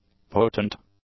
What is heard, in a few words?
"potent", english; adjectives